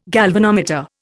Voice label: "galvanometer", Indian